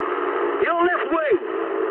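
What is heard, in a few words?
English left wing mood high; speech; War Thunder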